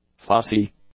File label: "fussy", English; adjectives